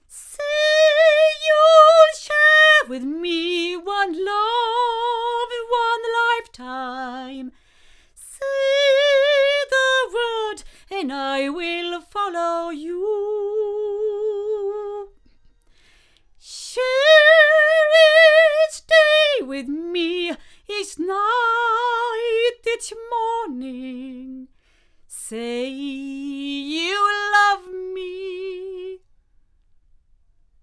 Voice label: female; Lauten Clarion; microphones